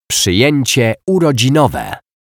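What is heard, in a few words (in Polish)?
"przyjecie urodzinowe", Polish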